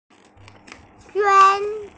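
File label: "juan1", Mandarin Chinese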